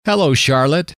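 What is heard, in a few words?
"hello", male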